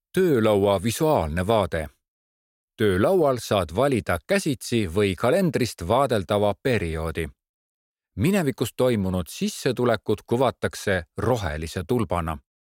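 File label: ee elearning, Estonian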